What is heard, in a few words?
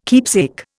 "keepsake", Indian